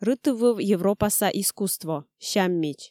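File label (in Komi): рытыввыв европаса искусство, сяммич, Komi, female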